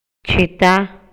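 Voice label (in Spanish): Maya, Yucatán, male; RELACIONES ESPACIALES; INALI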